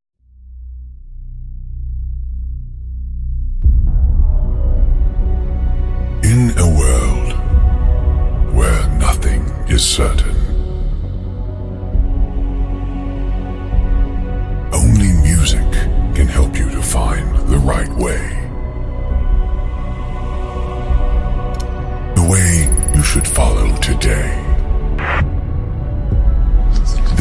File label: Professional DJ INTRO OPENER